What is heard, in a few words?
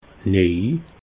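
"nej", Alsatian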